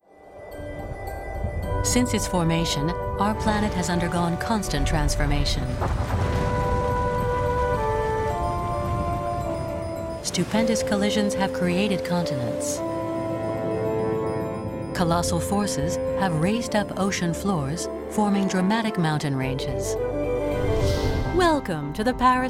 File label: voiceovers medley